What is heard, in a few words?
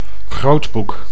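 "grootboek", Dutch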